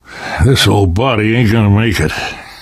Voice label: going to die light, left4dead2 survivor voice namvet; source engine